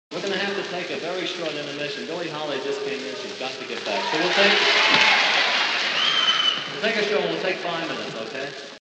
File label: announcement; Norman Granz